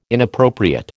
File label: "inappropriate", American